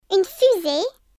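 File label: ROCKET, French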